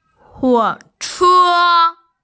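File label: "huo che", Mandarin Chinese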